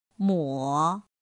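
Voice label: "mǒ", Chinese; pinyin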